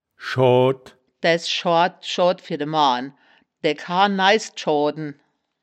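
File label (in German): "schoad", Wittlicher Wörterbuch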